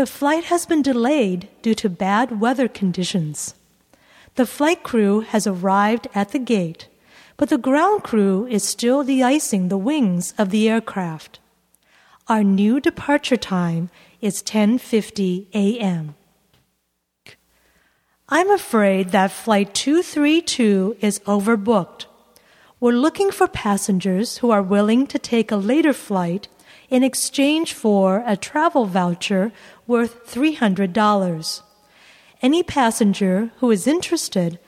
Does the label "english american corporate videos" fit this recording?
No